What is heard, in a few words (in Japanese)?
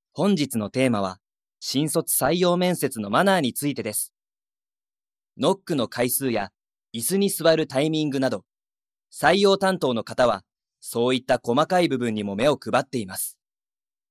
ナレ